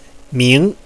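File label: "ming", Mandarin Chinese, second tone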